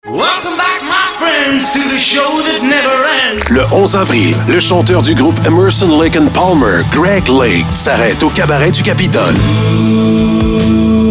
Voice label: radio ad